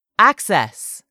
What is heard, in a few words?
"access", English; 3000 words